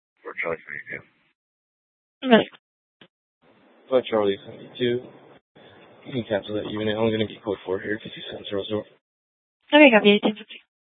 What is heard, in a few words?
Mesa PD Superstition Dispatch, scanner; TOPAZ Regional Wireless Cooperative (TRWC); Mesa Simulcast